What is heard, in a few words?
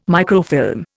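"microfilm", English, Indian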